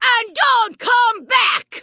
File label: NPC Vrykul Female Magic User Farewell